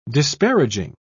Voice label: "disparaging", pronounce